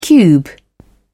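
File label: "cube", British English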